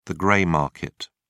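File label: "gray market", British English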